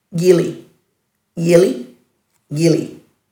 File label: "yili-", Dhurga